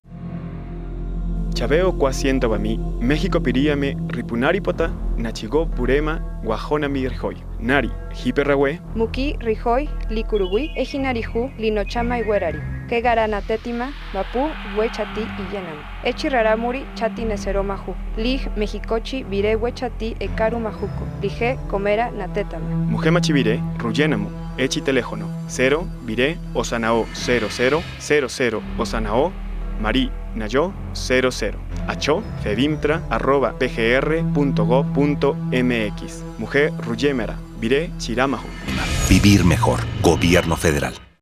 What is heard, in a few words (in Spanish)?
tarahumara del centro; Trata Laboral